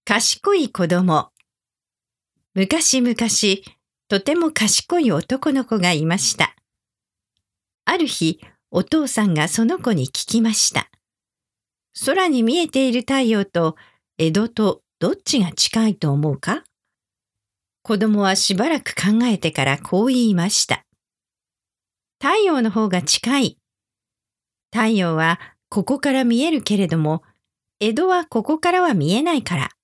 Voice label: The Wise Child Normal Speed, Japanese; Japanese Reader Collection 09 - Fountain of Youth